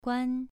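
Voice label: "guan", Mandarin Chinese, second tone, pronunciation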